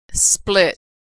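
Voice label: "split", American English; verb; Consonant Sound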